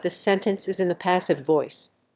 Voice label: tongue twister Neighbor; S2S-Bench; noise